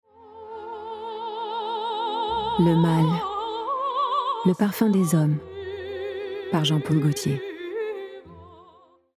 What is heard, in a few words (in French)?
VO Reel FR